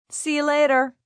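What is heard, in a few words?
"See Ya Later" female; Goodbyes; VoiceBox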